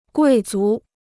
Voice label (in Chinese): "贵族", Chinese